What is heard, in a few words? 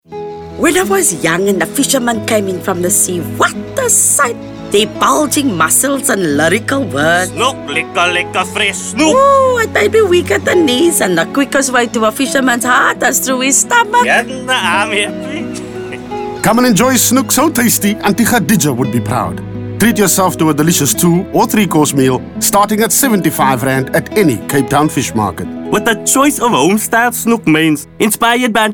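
english South African female commercial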